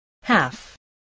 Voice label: "half", spelling